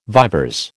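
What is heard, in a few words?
"vivers", American English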